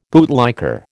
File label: "bootlicker", American